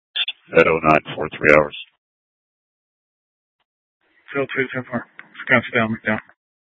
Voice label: PD Dispatch

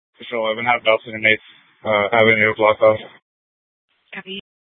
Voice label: Mesa PD Fiesta Dispatch

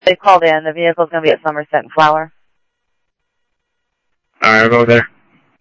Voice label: Mesa Simulcast, MESA PD, scanner audio

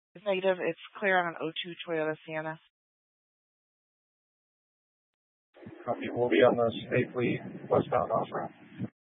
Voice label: Mesa PD Dispatch

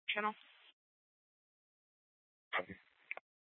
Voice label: Superstition Dispatch, Mesa PD

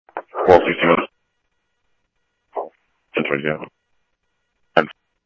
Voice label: Police, scanner audio trunk; H-Scottsdale

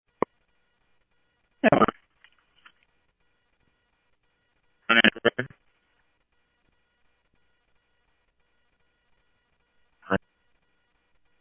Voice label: Tempe Police, scanner audio

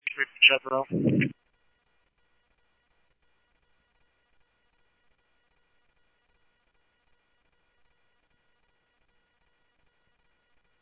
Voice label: Police, scanner; SCTSDLE PD - PD1; H-Scottsdale; ScottsdalePolice

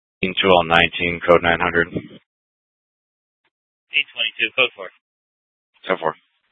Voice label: Dispatch; Scottsdale

